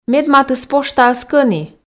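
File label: Komi, female; медматыс поштаыс кӧні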